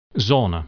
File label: "zone", dutch